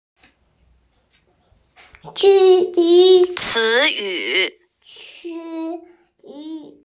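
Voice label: "cí yǔ", Mandarin Chinese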